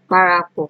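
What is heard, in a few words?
"kparakpor", Nigerian Pidgin, female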